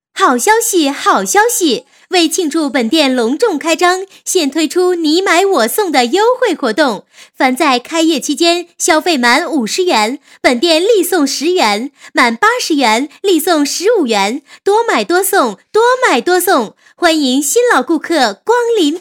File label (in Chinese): 女 促销 开业促销, 国语女声配音; 好消息; 多买多送